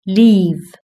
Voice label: "leave", British English

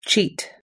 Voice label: "cheat", us english

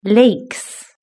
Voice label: "lakes", British English

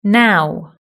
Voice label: "now", British English

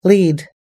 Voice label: "lead", us english

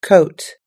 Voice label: "coat", us-english

